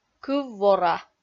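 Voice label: "кыв-вора" Komi, female; kpv audio dict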